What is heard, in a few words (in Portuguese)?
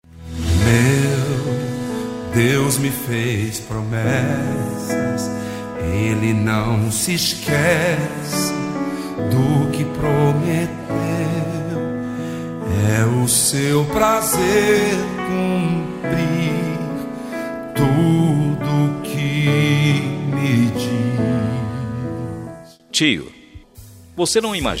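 Aniversário Evangélico Tio Masculino, telemensagem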